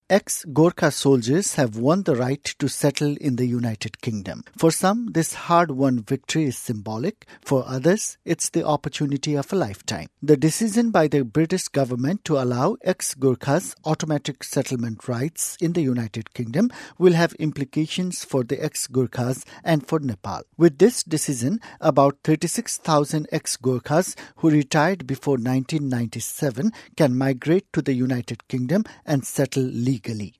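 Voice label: Nepali English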